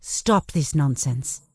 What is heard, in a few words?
Night Elf Female Priestess NPC Pissed